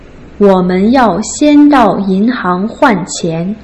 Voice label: "wo men yao xian dao yin hang huan qian", Putonghua